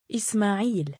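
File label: "2ismaa3iil" male, Arabic; إِسْمَاعِيل; ’ismā‘īl; イスマーイール; Ismaaiil、Ismaail、Ismaiil、Ismail、Ismaeel、Ismael、Ismaael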